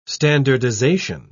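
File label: "standardisation", British English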